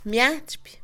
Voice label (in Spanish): Mixe, Mujer; agarrar; Posesión; INALI